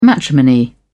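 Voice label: "matrimony", British English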